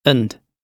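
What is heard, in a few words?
"and", British English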